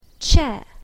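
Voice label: "chair", English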